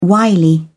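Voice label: "wily", British English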